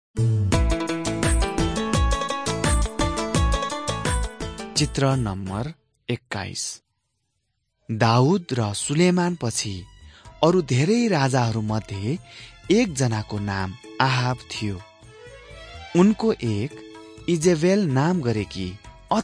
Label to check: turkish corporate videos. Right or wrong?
Wrong